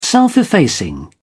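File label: "self-effacing", British English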